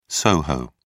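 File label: "soho", British English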